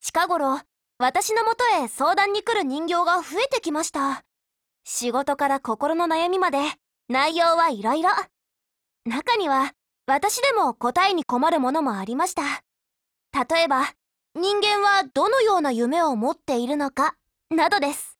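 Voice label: DIALOGUE, Japanese; PMMod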